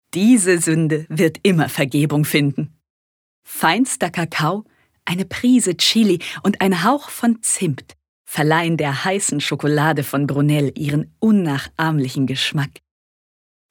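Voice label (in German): Werbung, Freundlich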